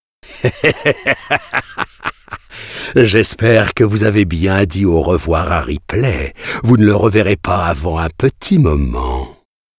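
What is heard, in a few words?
outro fail French; arthur and the revenge of maltazard; Wii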